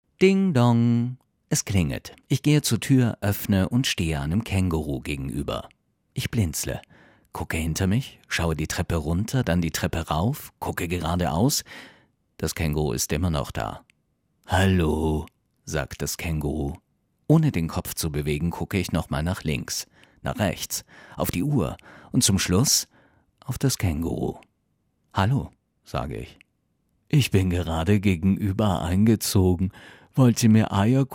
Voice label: Hörbuch; Känguru

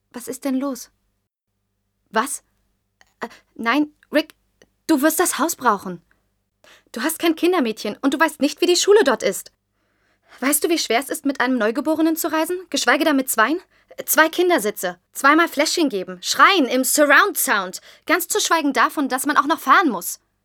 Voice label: Mutter; Sprecherkartei

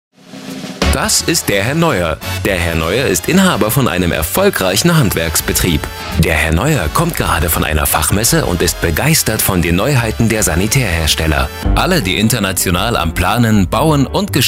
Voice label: Erklaerfilm

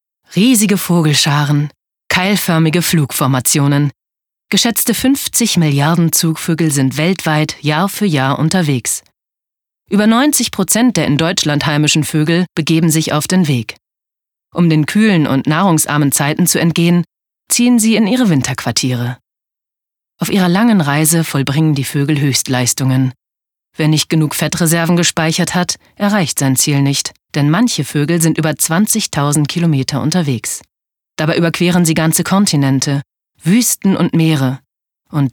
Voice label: Dokumentation

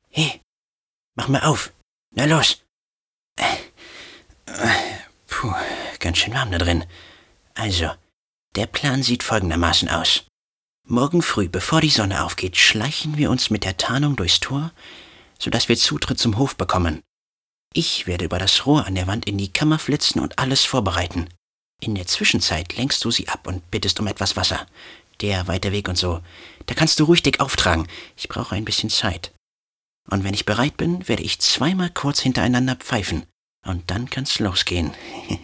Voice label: Trickstimme

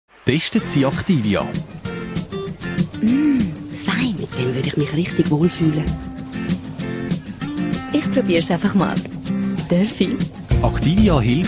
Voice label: Fernsehspot in Zuerichdeutsch; Activia

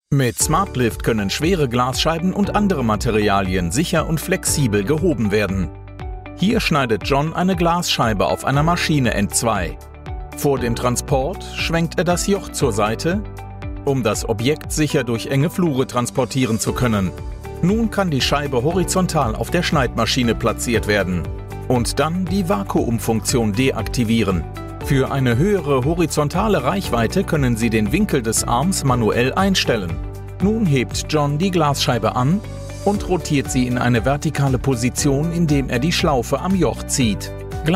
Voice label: Imagefilm

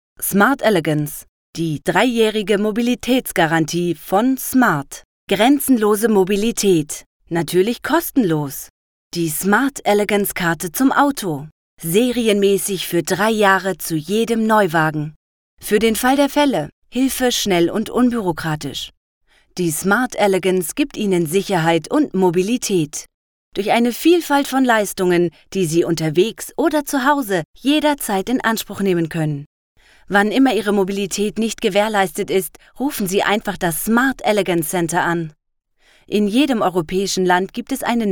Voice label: Werbung

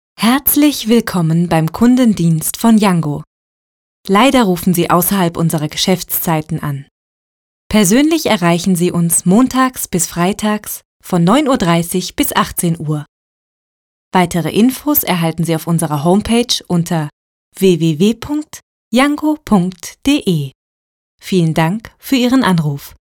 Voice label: Anrufbeantworter

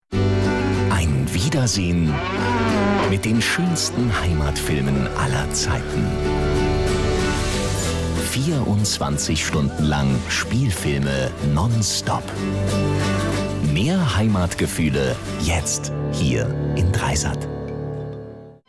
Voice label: Trailer; 3sat

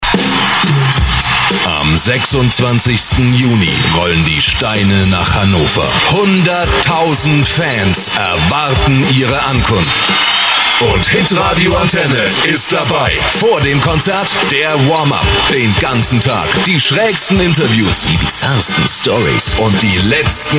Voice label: Trailer; Stones

